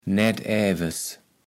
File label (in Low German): "naettäwes", kaevels platt